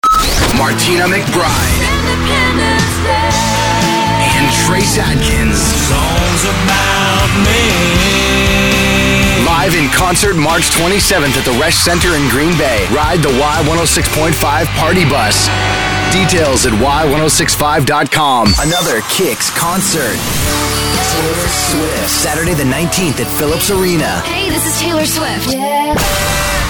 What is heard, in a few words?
Imaging Male